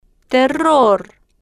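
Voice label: "terror", slow, spanish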